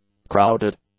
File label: "crowded", English; adjectives